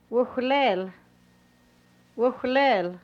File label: I m bringing serval things, hupa